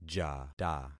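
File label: "jata", concatenated syllables; Affixing experiment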